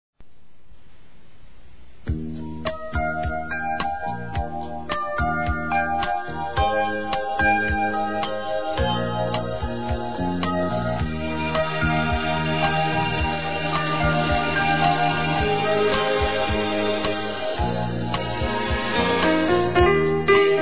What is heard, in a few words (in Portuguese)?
Aniversário Comadre Feminino; Aniversários; Aniv Familiar